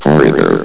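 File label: "corridor", male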